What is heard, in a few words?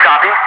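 "copy", English, Wingman; DCS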